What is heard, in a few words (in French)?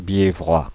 Bièvrois, Fr Paris